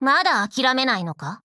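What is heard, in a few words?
Voice, Japanese; Dushevnaya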